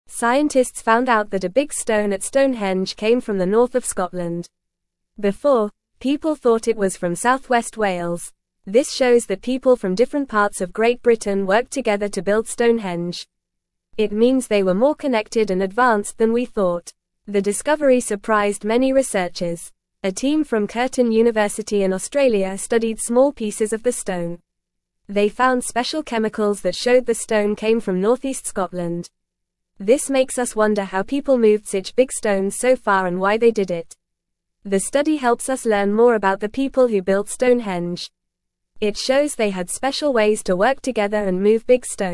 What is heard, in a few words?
English Lower Intermediate FAST Reading; English Newsroom; Big Stone at Stonehenge Came from Scotland Not Wales